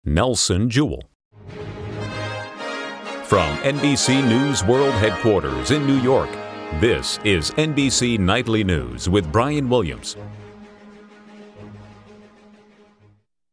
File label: Nightly News Open, Auditions; NBC